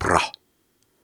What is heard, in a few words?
Soft Syllables and Imaginary Words, Viking Vocal